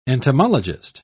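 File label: "entomologist", English, British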